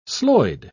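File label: "sloid", British English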